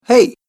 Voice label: "Hey", Male Voice; Recorded Voice Sound Effects